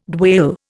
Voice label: "dwale", Indian

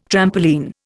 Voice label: "trampoline", Indian

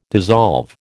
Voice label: "dissolve", American

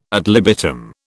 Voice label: "ad libitum", British

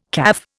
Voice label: "caff", Indian

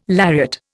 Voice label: "lariat", Indian

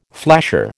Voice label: "flasher", American

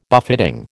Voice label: "buffeting", American

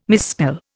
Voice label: "misspell", Indian

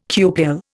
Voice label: "cupule", Indian

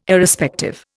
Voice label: "Irrespective", Indian

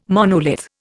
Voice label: "monolith", Indian

